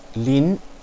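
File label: "lin", Mandarin Chinese, second tone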